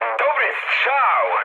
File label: bomb success mood high, speech pol; War Thunder